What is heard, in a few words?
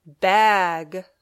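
"bag", English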